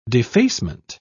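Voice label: "defacement", British English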